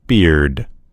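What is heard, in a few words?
"beard", American English; gcompris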